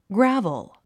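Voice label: "gravel", 英単語 発音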